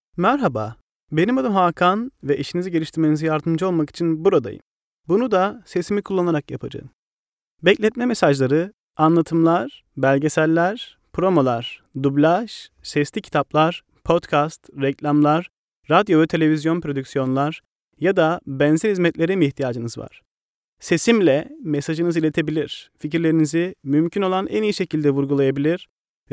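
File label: Turkish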